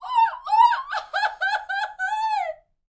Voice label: MEX FEMALE, World Voices; ILIO; Vocal Planet VOL-5